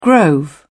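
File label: "grove", British English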